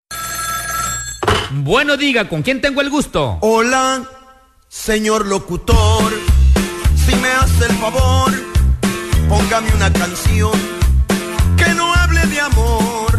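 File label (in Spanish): Señor Locutor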